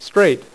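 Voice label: "straight" spoken, poker; iPoker 2000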